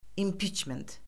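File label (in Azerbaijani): "impiçment", Azerbaijani; Orfoqrafiya Lüğəti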